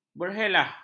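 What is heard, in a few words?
"berhelah", Malay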